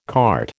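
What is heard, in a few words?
"cart", American English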